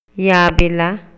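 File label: Maya, male; EMOCIONES Y VALORES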